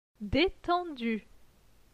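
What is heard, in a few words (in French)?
"Detendu", French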